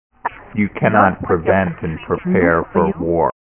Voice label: example english